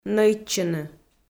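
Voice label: "нӧйтчыны", Komi, female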